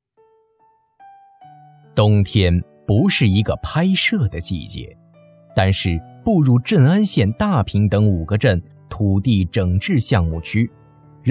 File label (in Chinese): 解说词; 镇安县大坪等-5-个镇-办-土地整治项目